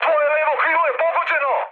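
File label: Serbian left wing mood high, speech; War Thunder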